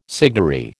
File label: "signary", American